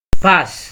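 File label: "pass", Nigerian Pidgin, male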